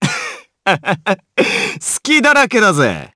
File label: Vox Skill jp; Kibera; kings raid